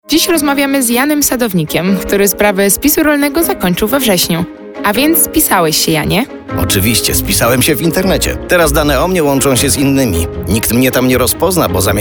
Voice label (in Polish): spot radiowy; bezpieczenstwo danych